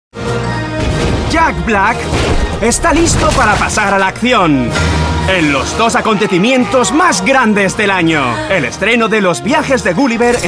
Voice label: spanish movie trailers